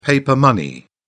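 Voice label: "paper money", British English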